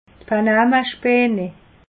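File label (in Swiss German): "panamaspane", Alsatian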